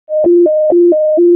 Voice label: WC EMS ALERT, p25